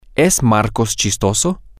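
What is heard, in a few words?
is funny, Spanish, male; is Marcos funny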